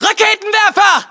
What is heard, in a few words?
US threat rpg, battlechatter